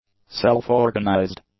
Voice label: "self-organized", English; adjectives